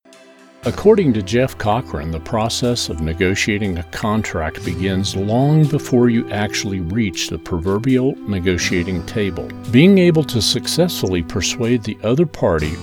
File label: corp eLearning